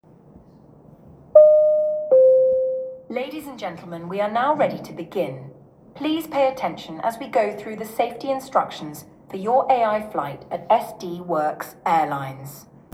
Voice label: safety instructions loud

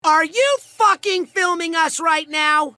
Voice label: yelling; moxxie; at blitz

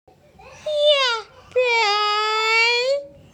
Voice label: yeah boy real voice

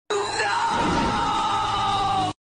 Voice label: screaming noooo; spiderman

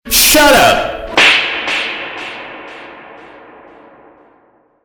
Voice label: shut up reverbed smack

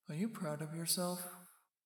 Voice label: spooky voice

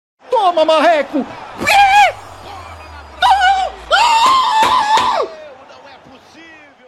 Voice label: rage; gaules; no fifa

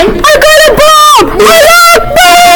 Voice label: arab kid

